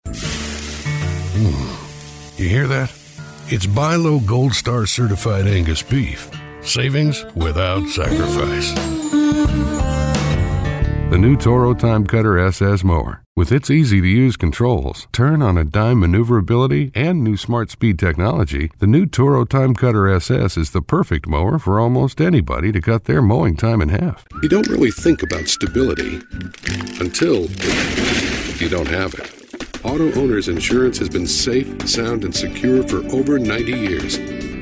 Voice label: Commercial